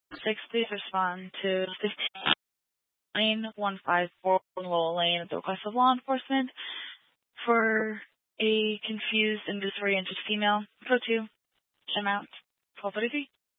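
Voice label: Fire Dispatch, Scanner audio recording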